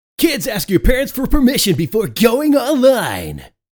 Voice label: Kids ask for permission